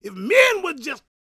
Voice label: preachin, gospel preacher